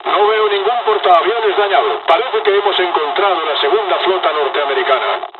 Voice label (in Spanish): dialog, dialogs spanish; War Thunder